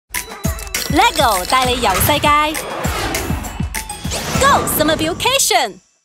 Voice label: chinese cantonese explainer videos